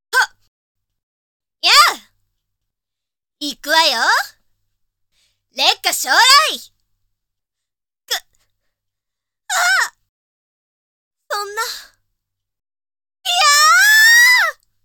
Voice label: battle woman